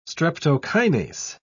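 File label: "streptokinase", English, British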